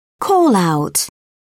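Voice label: "call-out", British English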